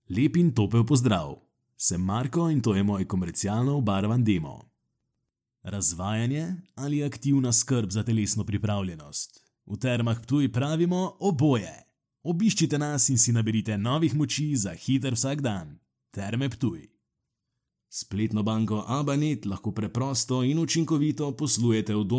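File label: Slovenian, Commercial; GoLocalise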